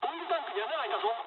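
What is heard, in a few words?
damaged oil mood med, speech japanese; War Thunder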